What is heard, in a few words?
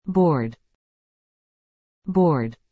"board", English